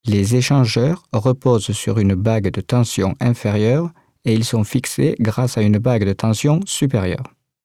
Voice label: fransk man

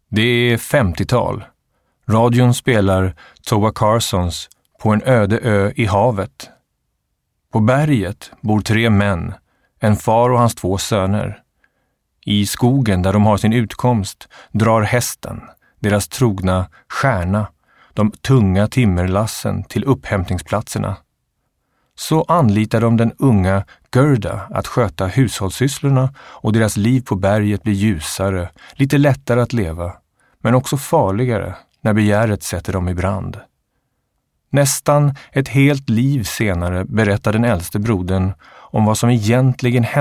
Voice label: svensk man

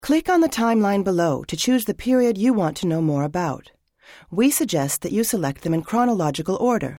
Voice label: amerikansk kvinna

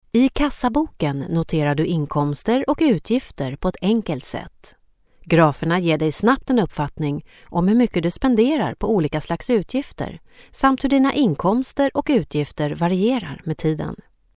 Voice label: svensk kvinna

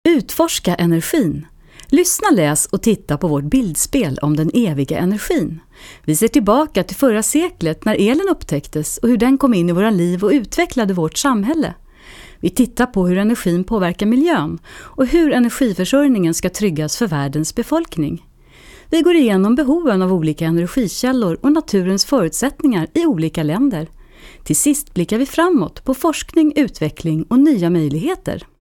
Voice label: svensk kvinna

